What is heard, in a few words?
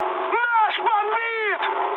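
Russian aircraft destroyed mood high, speech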